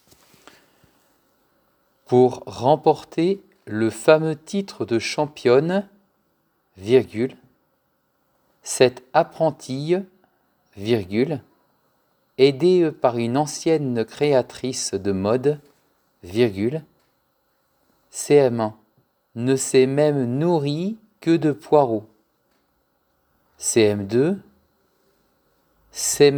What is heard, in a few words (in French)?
Dictée flash